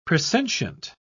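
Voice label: "presentient", British English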